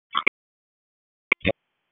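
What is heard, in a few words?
Fire Department HQ Dispatch; RFD; wake; p25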